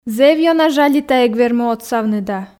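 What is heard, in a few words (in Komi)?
зэв ёна жалита эг вермы отсавны да, Komi, female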